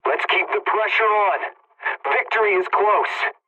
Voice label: pilot tickets lead continue ally, english